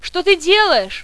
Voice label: fear; svencoop; paranoia; medic